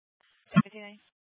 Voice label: Sheriff Dispatch, scanner